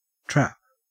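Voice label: "trap", Australian English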